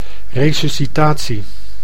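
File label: "resuscitatie", Dutch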